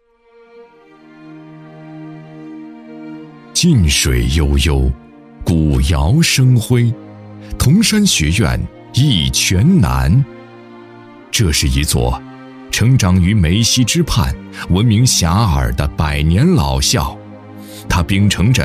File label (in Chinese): 男 学校宣传片 大气抒情; 磁灶中心小学百年校庆